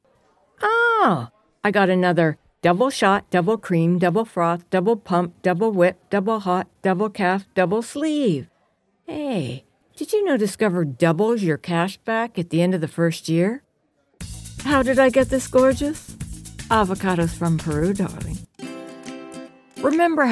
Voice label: Commercial, American Voice Over